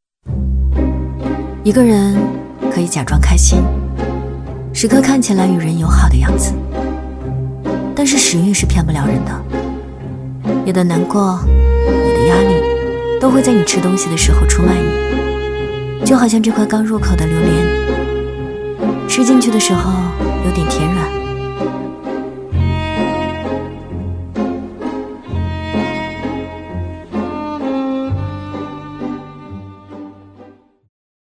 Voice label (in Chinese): 女-走心旁白; 王家卫风格